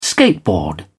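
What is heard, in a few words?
"skateboard", British English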